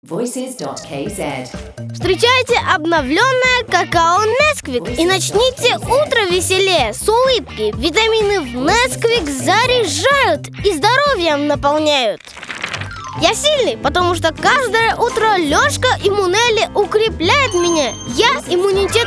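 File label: kid russian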